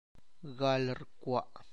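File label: tlacochahuaya, Zapotec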